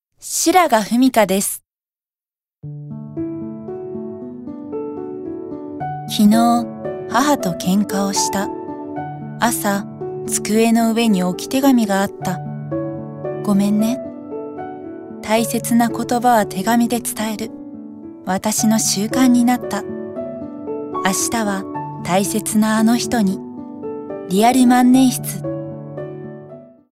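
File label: commercial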